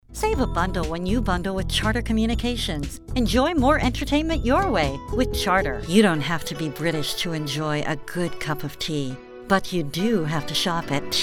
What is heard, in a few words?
Commercial